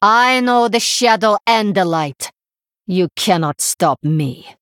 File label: Female Draenei